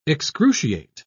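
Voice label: "excruciate", British English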